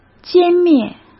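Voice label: "歼灭", Mandarin Chinese; hsk; chinese flashcards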